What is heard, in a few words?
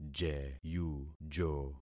"jeyujo", concat syllables; Affixing experiment